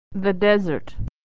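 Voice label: "the desert", American English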